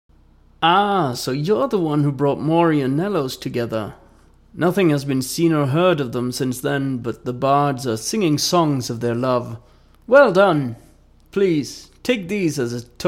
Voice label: breton voice audition; skywind